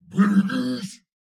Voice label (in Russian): "Берегись!", Фраза; Турбосвин